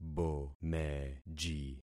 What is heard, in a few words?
"bomeji", concat syllables; Affixing experiment